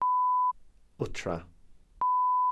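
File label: "wtra", Welsh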